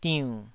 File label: "diunn3", Taiwanese syllable